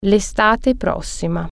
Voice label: next summer, italian

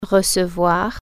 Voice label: receive, french

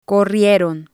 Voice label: "corrieron", spanish

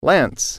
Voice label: "lance", English

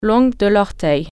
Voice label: "toenail", French

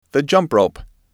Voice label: "jump Rope", English

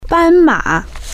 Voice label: "zebra", Chinese

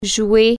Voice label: play instrument, french

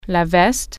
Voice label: jacket, french

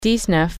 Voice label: "nineteen", French

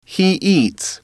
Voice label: "he eats", english

